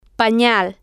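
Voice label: "panal", Spanish, female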